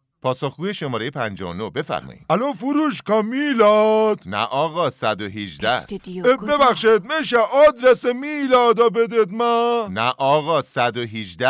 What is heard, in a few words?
commercial